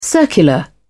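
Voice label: "circular", British English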